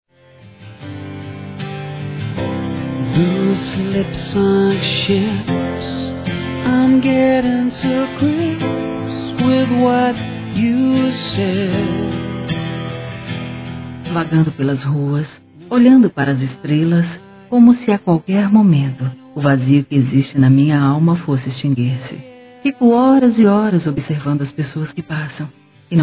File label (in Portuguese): Poesia Romântico Feminino; Telemensagens; Sensuais